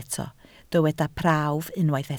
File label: "prawf", welsh